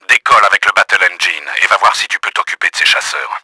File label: ATTACK AIR, french; Battle Engine Aquila; XBOX